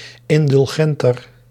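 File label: "indulgenter", Dutch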